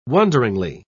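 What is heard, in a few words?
"wonderingly", British English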